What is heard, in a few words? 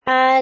Female voice far 'had'